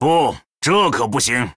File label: Male Troll ERROR GENERIC, zh